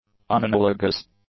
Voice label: "unanalogous", English; adjectives